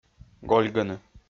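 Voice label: "гольгыны", Komi, male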